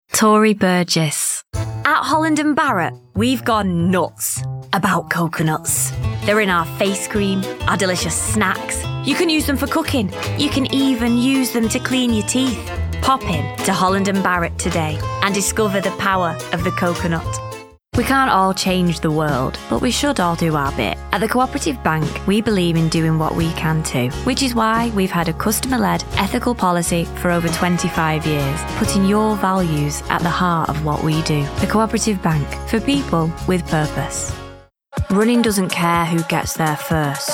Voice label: Commercial